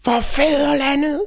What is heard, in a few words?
"Kamikaze", Danish; Worms Armageddon; Danish